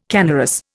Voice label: "canorous", Indian